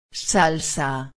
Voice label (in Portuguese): "salsa", espanhol